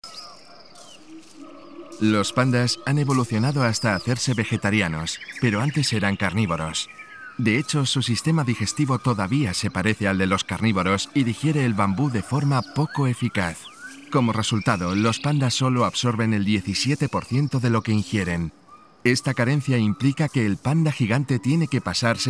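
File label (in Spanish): e-learning, Spain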